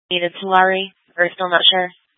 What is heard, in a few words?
Fire Departments Mesa Simulcast; TOPAZ